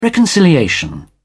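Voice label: "reconciliation", British English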